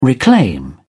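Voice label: "reclaim", British English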